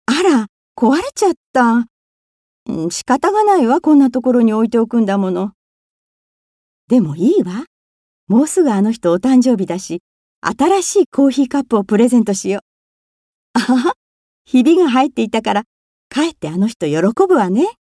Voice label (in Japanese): ポジティブ